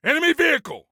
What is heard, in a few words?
Warn Vehicle, Soldier Male; Soldier Male 03